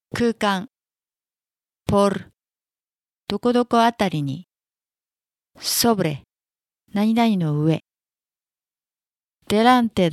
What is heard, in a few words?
Spanish basic word; basic spanish 2019